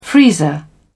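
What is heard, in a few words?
"freezer", British English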